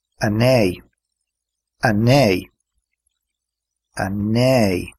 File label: nine irish